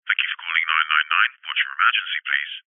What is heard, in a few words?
emergency call handler uk operator says thank you for calling 999 whats your emer